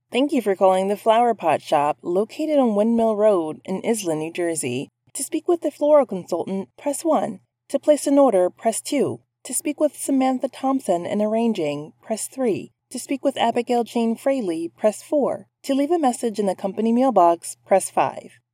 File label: TELEPHONE; Voice over; FLOWER POT SHOP